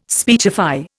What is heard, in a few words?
"speechify", Indian